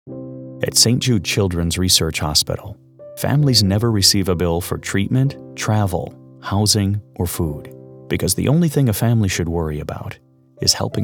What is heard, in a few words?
english male voices american accent